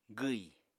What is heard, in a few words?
"gwi", Apatani